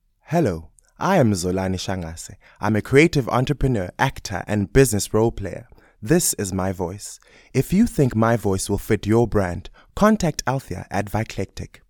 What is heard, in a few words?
intro english